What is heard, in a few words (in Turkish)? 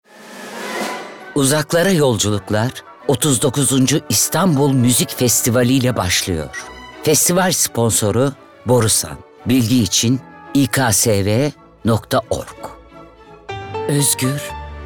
REKLAM